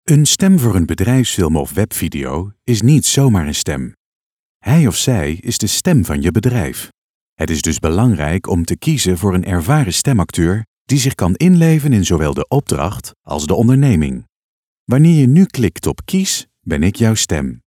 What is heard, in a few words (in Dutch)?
BEDRIJFSFILM